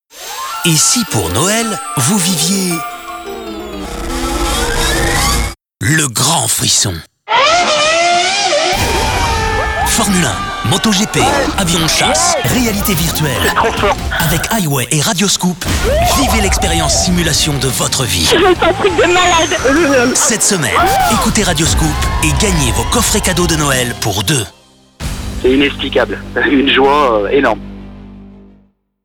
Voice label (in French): promo cadeaux; i way